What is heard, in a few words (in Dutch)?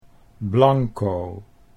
"blanco", Dutch